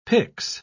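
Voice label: "pix", British English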